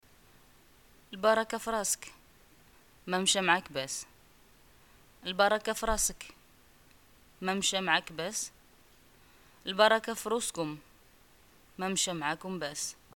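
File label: greeting death, Moroccan Arabic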